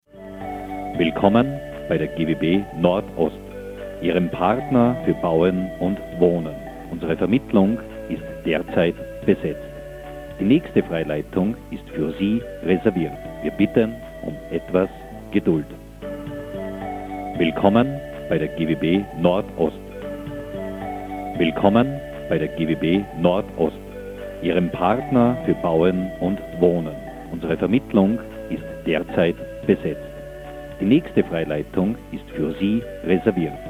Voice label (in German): Anrufbeantworter